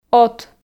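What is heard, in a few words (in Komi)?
"от", Komi, female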